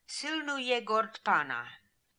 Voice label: "Silnooyegordpana", pronounciations; Fourth Way; Beelzebub